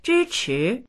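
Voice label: "zhi1chi2", Mandarin Chinese; 支持; zhīchí; 支持、サポート（する）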